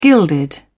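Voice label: "gilded", British English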